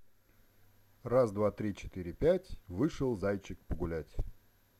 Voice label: close to mic